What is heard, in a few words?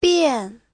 "bian4", Chinese; Giáo trình Hán ngữ